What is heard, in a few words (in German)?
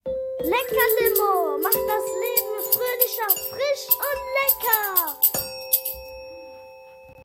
Radio Werbung